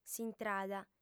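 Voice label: "s'intrada", Sardinian, female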